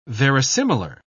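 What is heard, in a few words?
"verisimilar", British English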